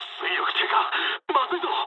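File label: Japanese damaged engine mood low; War Thunder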